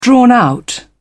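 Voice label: "drawn-out", British English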